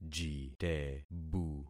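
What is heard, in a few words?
"jitebu", concatenated syllables; Affixing experiment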